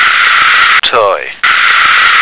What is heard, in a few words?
"toy", American